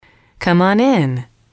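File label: "come one in", English, female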